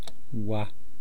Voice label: "wa", East Cree syllabics; language